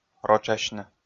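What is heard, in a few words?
"рочасьны", Komi, male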